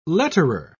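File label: "letterer", British English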